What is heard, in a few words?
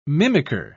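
"mimicker", British English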